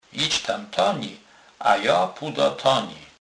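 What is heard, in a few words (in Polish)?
"tamteni", Kurpie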